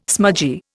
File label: "smudgy", Indian